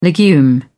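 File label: "legume", British English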